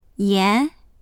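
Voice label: "yan2", Mandarin Chinese; 盐; 塩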